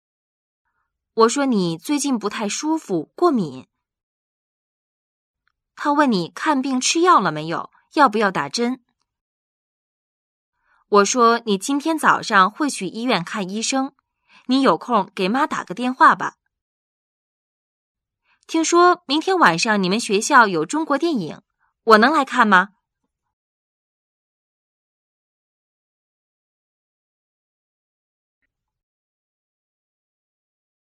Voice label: narrative